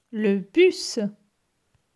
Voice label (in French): "le bus", francais